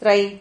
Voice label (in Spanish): "trai", Silabas